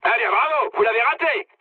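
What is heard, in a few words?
bomb failure, mood high, French; War Thunder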